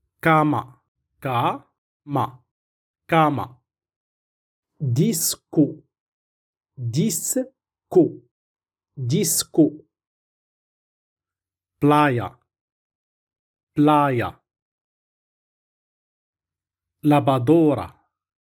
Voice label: pronunciation of Spanish vocabulary used in northern morocco tangier tetouan chefchaouen